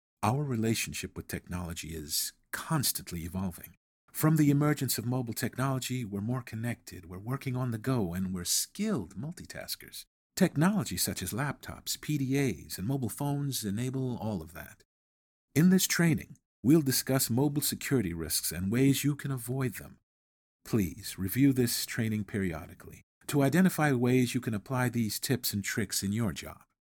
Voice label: E-Learning Sample